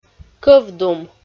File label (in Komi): "кӧвдум" Komi